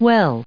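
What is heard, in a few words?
"well", US English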